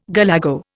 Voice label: "galago", Indian